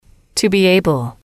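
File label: "to be able", English, female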